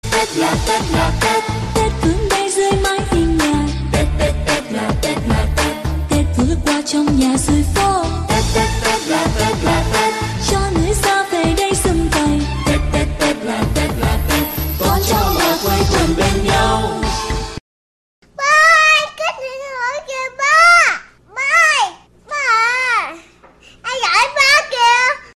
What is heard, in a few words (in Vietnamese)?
Ba Ơi Có Điện Thoại, Nhạc Chuông